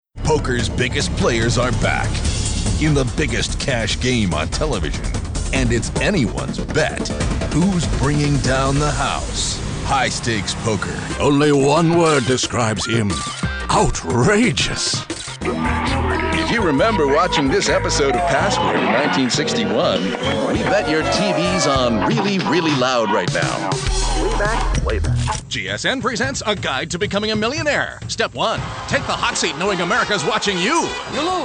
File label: Male, promos